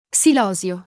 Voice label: "xilosio", italiano

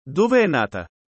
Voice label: chiedere formale, italiano

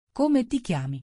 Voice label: domanda informale

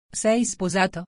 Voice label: domanda informale

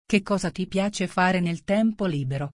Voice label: domanda informale

